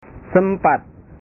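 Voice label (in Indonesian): "sempat", Indonesian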